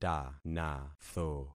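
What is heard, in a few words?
"tanatho", concatenated syllables; Affixing experiment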